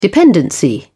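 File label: "dependency", British English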